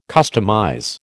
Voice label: "customize", American

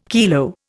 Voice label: "kilo", Indian

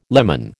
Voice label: "lemon", American

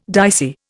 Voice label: "dicey", Indian

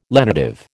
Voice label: "lenitive", American

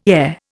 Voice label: "heir", Indian

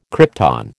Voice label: "krypton", American

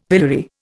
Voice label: "pillory", Indian; Dictionary

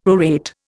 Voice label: "prorate", Indian; Dictionary

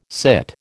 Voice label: "sit", American

